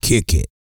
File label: COUNT, Vocal Planet, R&B & Dance, COUNTING; ILIO